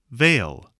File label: "veil", English